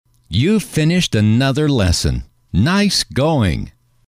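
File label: you finished another lesson nice going, male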